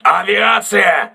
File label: voice message air alert, crew ships russian